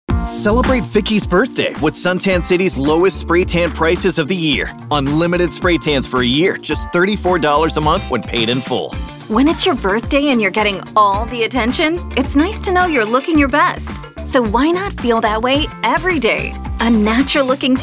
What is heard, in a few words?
Radio; STC